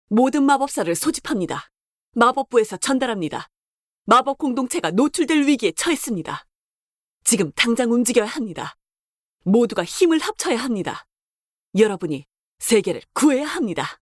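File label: Korean, female, commercial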